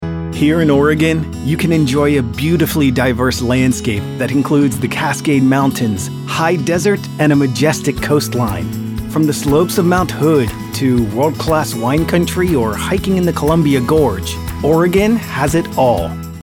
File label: english american narration